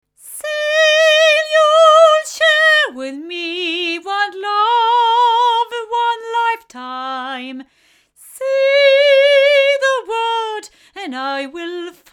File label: female; Oktava MKL-5000; microphones